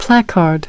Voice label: "placard", US